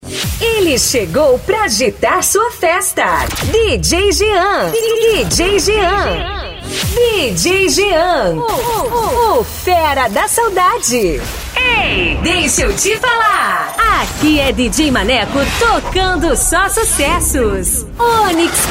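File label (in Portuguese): ♀, vozes para vinhetas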